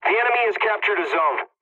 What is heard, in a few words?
pilot capture zone enemy, english; War Thunder